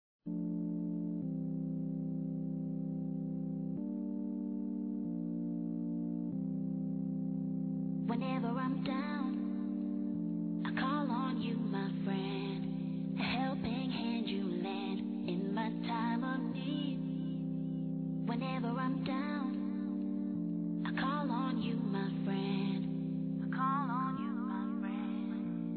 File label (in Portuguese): AMIGA DE TRABALHO M